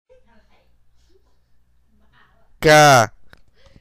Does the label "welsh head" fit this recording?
No